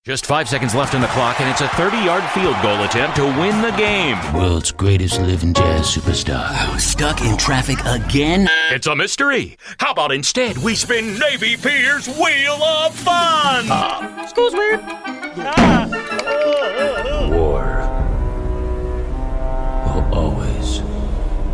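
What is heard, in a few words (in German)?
English US Mix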